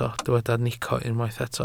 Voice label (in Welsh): "nico", Welsh